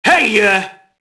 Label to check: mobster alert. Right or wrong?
Right